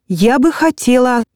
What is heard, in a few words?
ru useful phrases Female